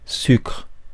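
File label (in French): "sucre", French; phonemes; Mots francais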